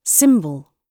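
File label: "symbol", British English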